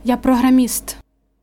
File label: dialogue, Ukrainian